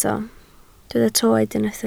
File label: "troed", Welsh